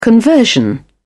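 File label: "conversion", British English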